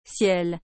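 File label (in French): "ciel", French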